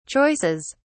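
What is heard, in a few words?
"choices", British English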